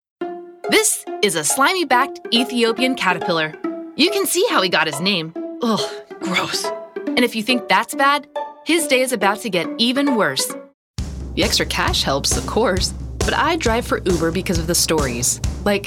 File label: Commercial reel